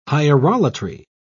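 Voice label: "hierolatry", English, British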